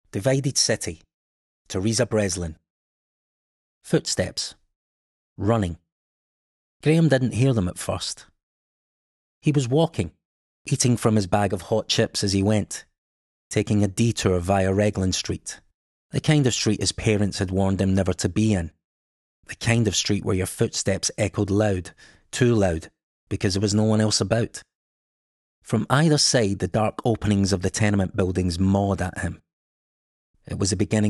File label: AUDIOBOOK